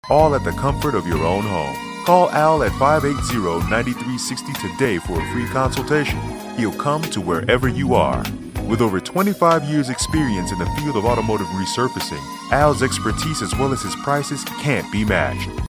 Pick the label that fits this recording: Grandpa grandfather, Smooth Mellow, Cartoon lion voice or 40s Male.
Smooth Mellow